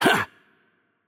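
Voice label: attack light, Russian; bloom minigame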